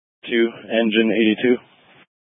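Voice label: Incident Tac 1, Scanner audio recording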